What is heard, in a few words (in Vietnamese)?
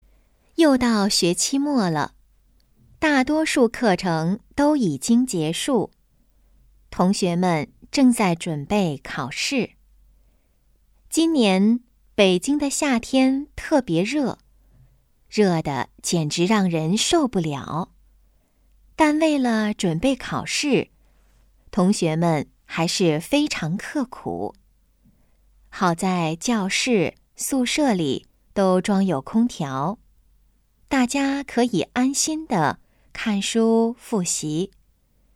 luyen nghe tieng trung; chu de hoc tap